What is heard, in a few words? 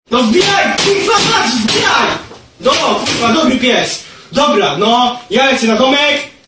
angry polish kid